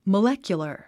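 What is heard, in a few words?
"molecular", English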